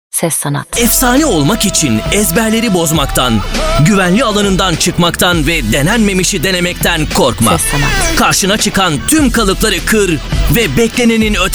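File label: Turkish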